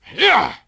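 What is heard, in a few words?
Twi male attack; XBOX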